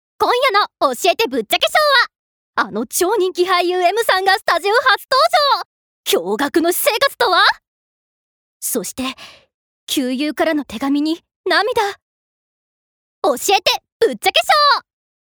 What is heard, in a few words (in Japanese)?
ナレーション